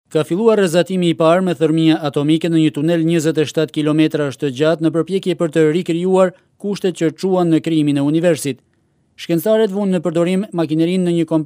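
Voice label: Albanian Male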